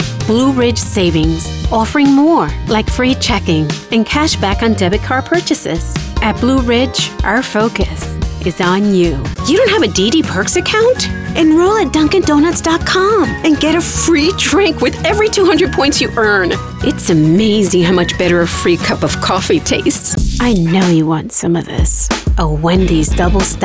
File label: Commercial English